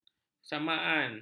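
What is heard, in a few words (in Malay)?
"kesamaan", Malay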